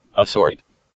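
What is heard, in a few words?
"assort" English, US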